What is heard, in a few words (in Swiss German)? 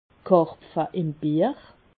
"karpfe", Alsace